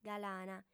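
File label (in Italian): "galana", lingua sarda, female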